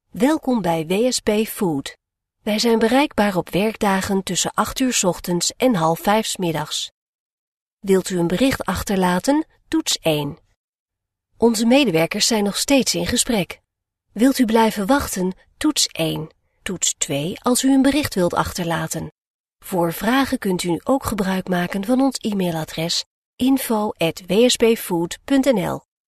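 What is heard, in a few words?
Dutch, female, IVR; voice over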